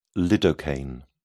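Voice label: "lidocaine", British English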